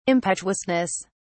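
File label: "impetuousness", English, British English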